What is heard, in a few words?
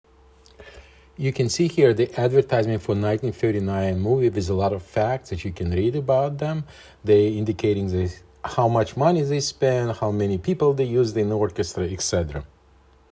Advertisement